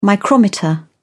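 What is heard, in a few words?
"micrometer", British English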